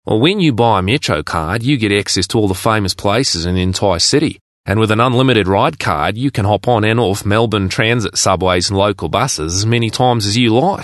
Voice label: English Character Australian Accent

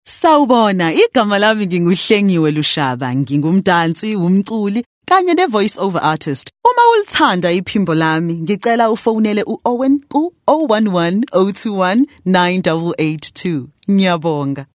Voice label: Zulu Conversational

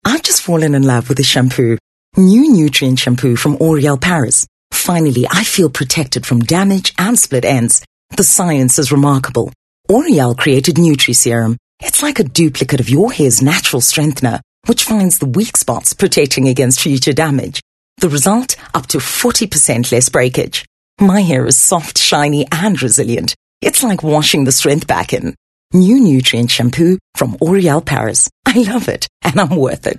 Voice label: English Bright

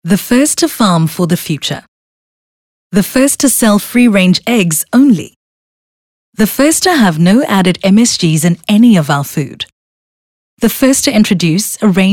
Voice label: English Intimate

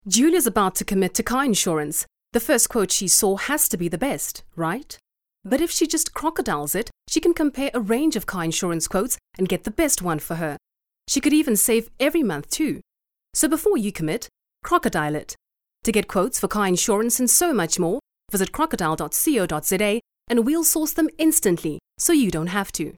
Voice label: English Corporate

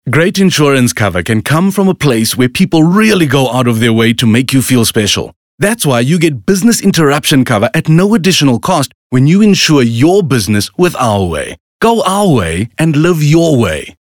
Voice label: English Corporate

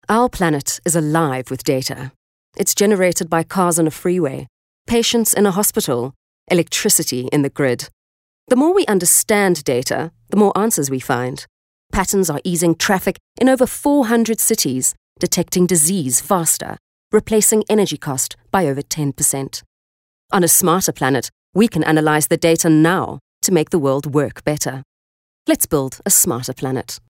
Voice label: English corporate

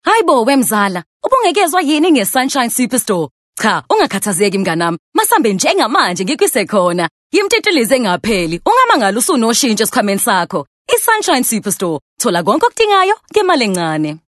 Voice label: Zulu, hard sell

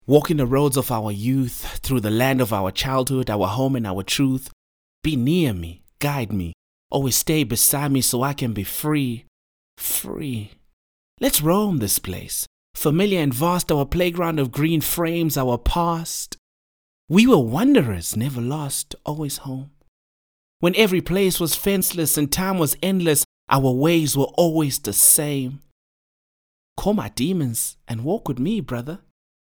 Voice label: English slam poetry; OSM Talent

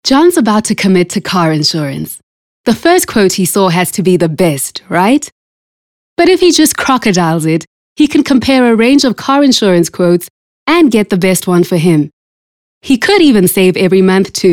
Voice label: English Corporate

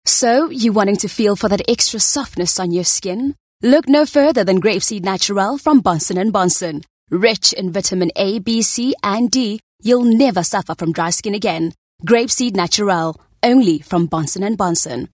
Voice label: English Softsell

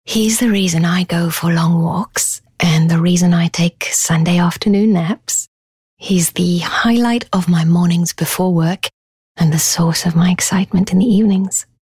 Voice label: English Conversational; OSM Talent

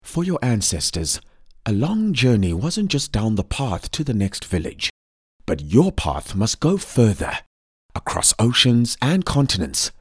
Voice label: Conversational; OSM Talent

